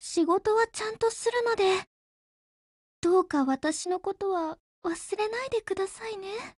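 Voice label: BLACK ACTION Japanese, Voice; GF Alarm; CAR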